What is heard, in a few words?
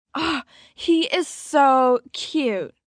He Is So Cute, 1 girl, 12 yrs; Talking Sound Effects; VoiceBox; Twistedtracks